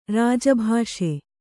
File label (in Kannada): "ರಾಜಭಾಷೆ", Kannada